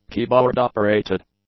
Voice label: "keyboard-operated", English; adjectives